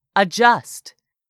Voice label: "ADJUST", english; 3000 words